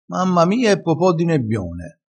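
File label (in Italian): "nebbione", dialettale crespinese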